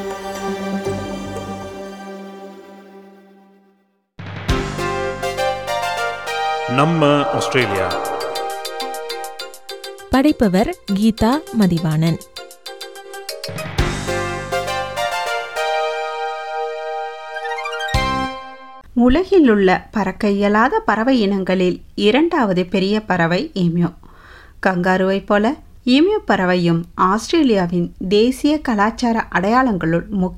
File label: tamil; SBS Tamil; ஆஸ்திரேலிய சின்னம் ஈம்யு பறவை பற்றிய அரிய தகவல்கள்